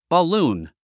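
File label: "balloon", English; bəlúːn バルーン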